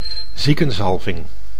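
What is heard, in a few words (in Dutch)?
"ziekenzalving", Dutch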